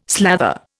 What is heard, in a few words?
"slather", Indian